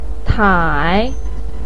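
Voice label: "tai", Chinese pinyin, third tone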